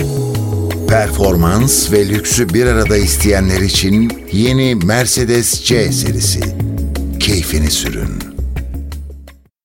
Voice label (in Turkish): enerjik tempolu